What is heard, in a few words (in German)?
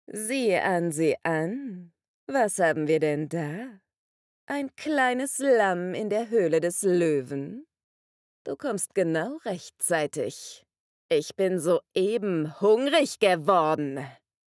Gaming Boesewicht